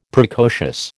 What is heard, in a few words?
"Precocious", American